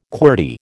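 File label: "qwerty", American English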